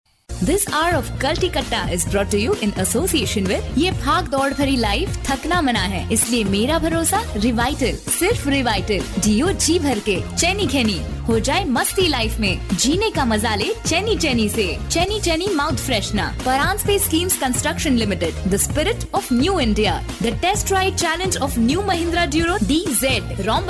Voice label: female hindi voice over sales